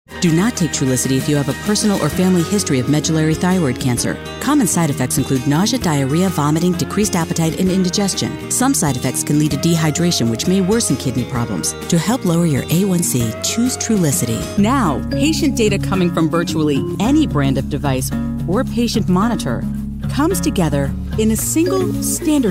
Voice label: english american medical narration

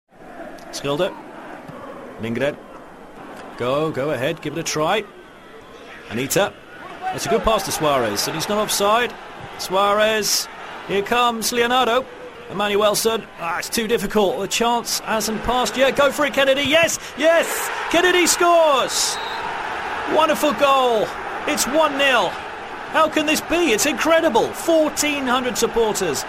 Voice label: english british tv shows

